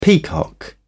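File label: "peacock", British English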